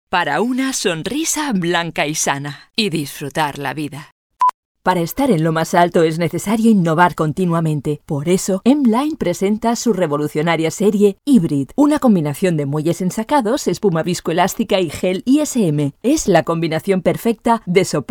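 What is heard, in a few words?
Spanish, advert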